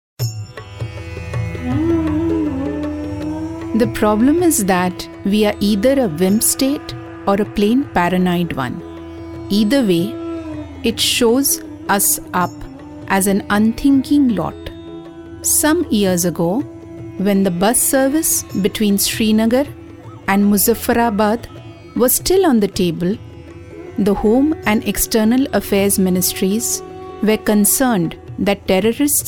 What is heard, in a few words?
DOCU ENGLISH, female voice